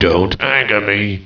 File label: annoyed; war2; humans; mage